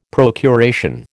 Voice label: "procuration", American

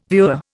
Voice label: "viewer", Indian

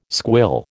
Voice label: "squill", American

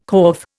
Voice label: "corf", Indian

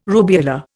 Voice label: "rubeola", Indian

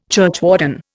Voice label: "churchwarden", Indian

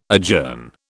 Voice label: "adjourn", British

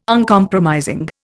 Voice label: "Uncompromising", Indian

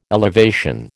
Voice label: "elevation", American

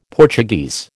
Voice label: "Portuguese", American

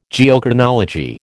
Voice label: "Geochronology", American

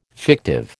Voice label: "fictive", American

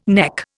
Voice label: "neck", Indian

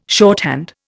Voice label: "shorthand", Indian

